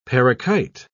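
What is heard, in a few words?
"parakite", British English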